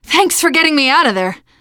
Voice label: to the rescue thanks, teen girl; player; survivor; voice

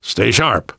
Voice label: warn careful, namvet, survivor voice; Left 4 Dead 2; left4dead2 dlc3

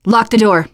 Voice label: close the door, teen girl; player; survivor; voice

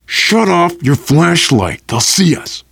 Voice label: kill that light, voice, biker; Left 4 Dead 2; left4dead2 dlc3; survivor

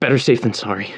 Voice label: radio initial broadcast

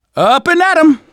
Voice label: revive friend, survivor voice manager; Left 4 Dead 2; left4dead2 dlc2

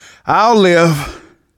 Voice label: getting revived, manager, survivor, voice; Left 4 Dead 2; left4dead2 dlc2

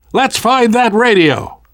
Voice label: world hospital, namvet, survivor voice

